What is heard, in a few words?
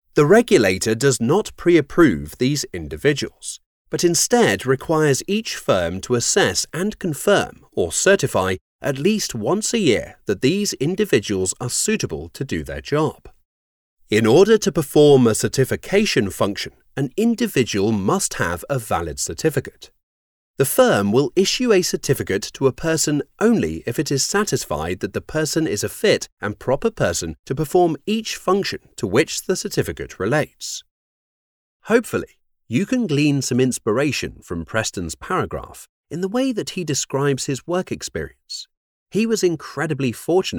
elearning